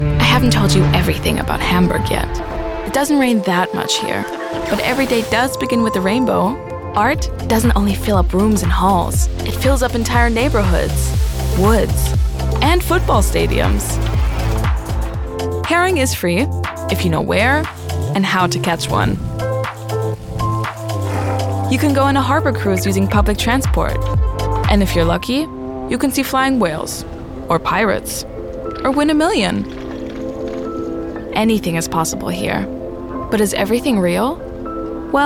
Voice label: Imagefilm ENG; LOFT VOICES

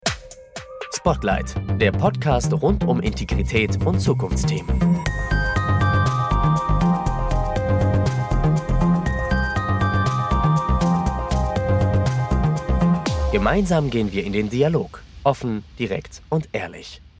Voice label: Jingle

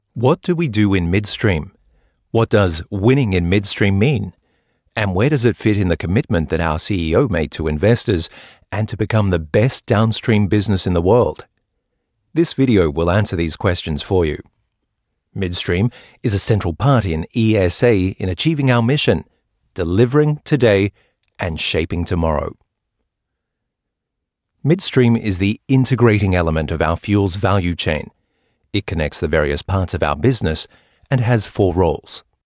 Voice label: EN Erklaervideo; BP; Aral; LOFT VOICES

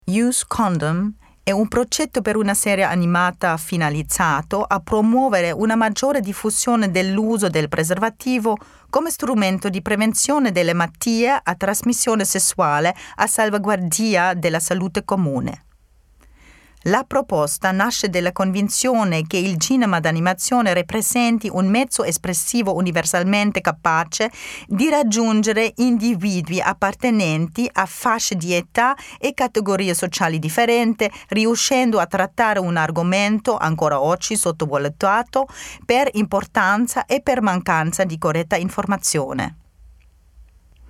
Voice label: animation radio, Assisi Italia; subasio

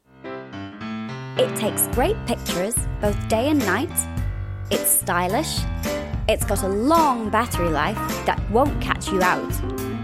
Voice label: Phone ad

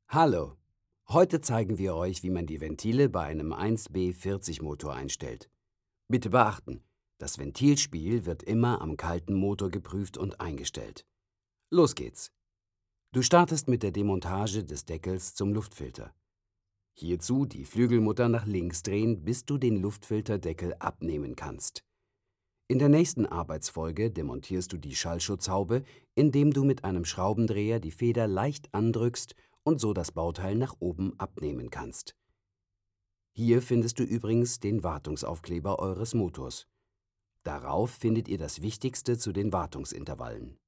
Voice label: E-Learning; LOFT VOICES

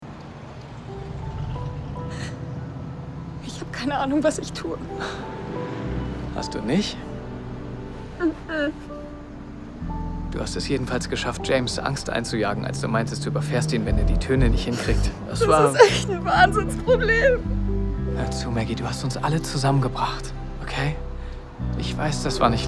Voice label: Drama; The High Note; LOFT VOICES

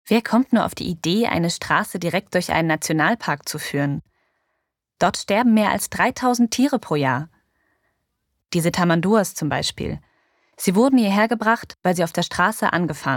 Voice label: Voice Over Tamanduas; LOFT VOICES

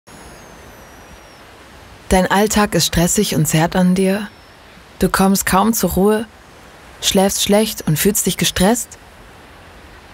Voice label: Meditation; AOK

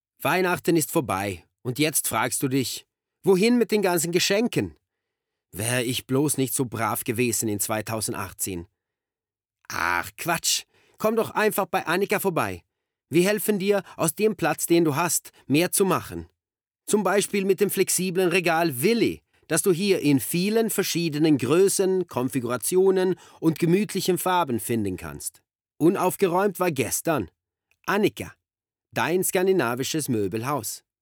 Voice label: German w Swedish Accent Commercial

